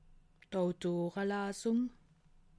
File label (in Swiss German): "autorelasung", alsace